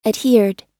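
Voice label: "adhered", American English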